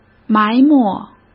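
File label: "埋没" Mandarin Chinese; hsk; chinese-flashcards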